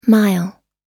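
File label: "mile", American English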